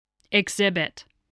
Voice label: "exhibit", voice